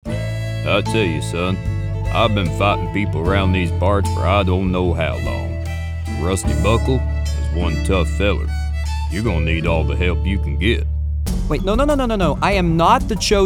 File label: Animation Voiceover